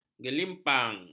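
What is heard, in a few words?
"gelimpang", Malay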